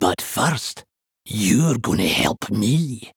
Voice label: Male Dwarf Victory Pre Explosion

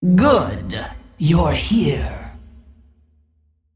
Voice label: Female Dragon

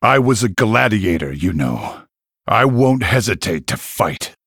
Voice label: Male Human Boss Idle; Varian

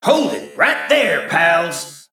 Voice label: Male Mech After Freezing

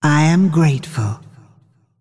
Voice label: Female Dragon Thanks

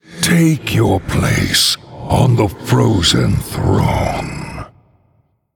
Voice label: Male Undead; The Lich King; greeting

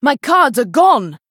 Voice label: Female Night Elf No Cards; Elise

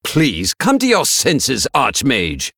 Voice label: Male Blood Elf Idle

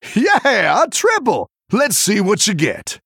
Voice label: Male Human After Triple

